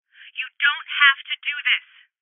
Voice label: Voices Postpone Female; rebel cops 2019